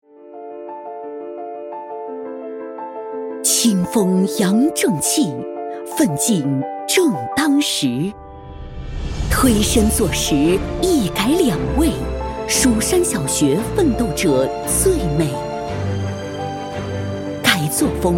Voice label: 女 正气 严肃风格; 蜀山小学

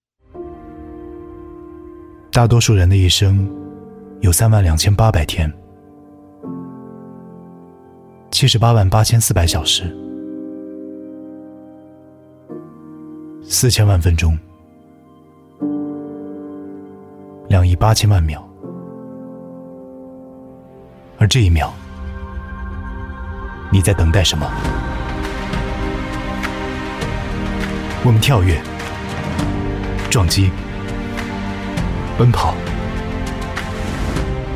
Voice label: 男 独白 讲述 坚持 青春; 年轻就是梦想

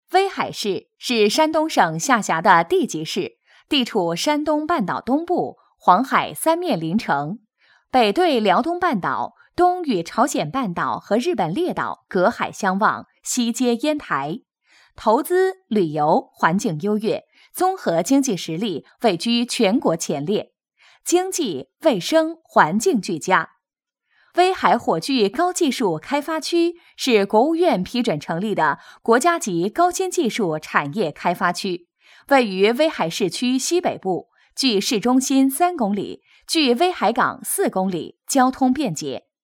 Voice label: 女 专题; 威海高新

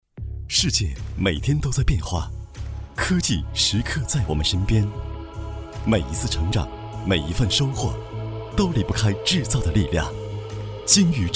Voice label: 男 专题 现代 科技 亲和力 年轻 企业宣传; 晶奥太阳能

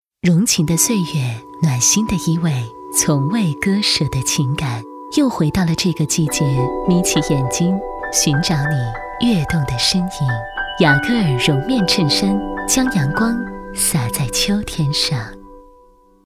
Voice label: 女; 雅戈尔-柔面衬衫

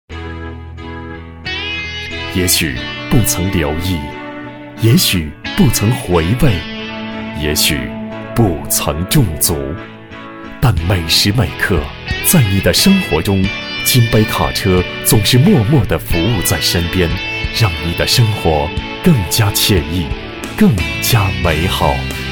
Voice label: 男; 金杯卡车

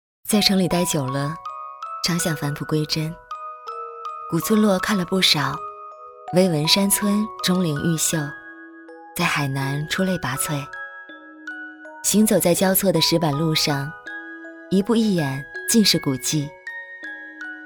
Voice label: 女 专题; 昌学村

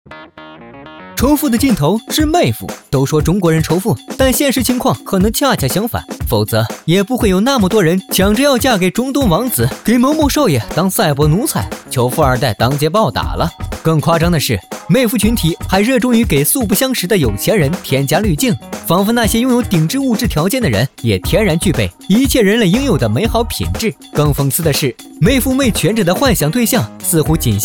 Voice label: 男; 飞碟说

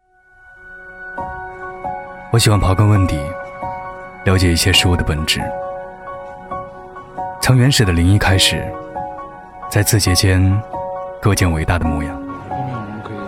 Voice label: 男 走心独白宣传片; 编程

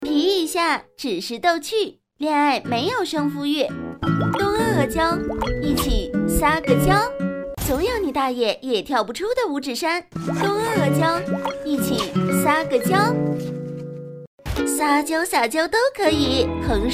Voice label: 女 广告; 东阿阿胶尾板

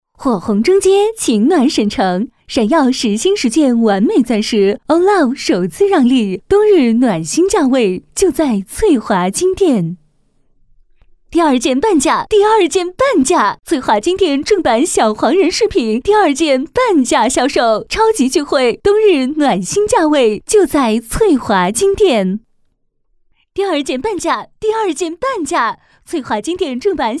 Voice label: 女 促销广告 金店

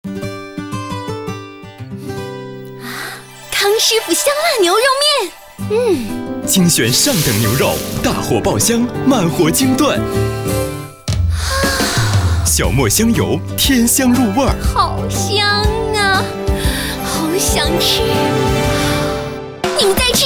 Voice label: 男 年轻声音; 康师傅香辣牛肉面

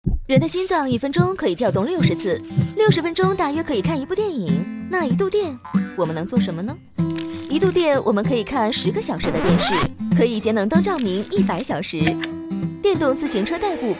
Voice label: 女; MG-让天空更蓝

